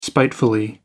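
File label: "spiteful", British English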